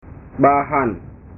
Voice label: "bahan", bahasa